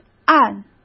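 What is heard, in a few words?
"岸", Mandarin Chinese; chinese-flashcards; hsk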